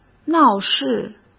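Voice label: "闹事", Mandarin Chinese; chinese-flashcards; hsk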